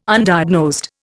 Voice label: "Undiagnosed", Indian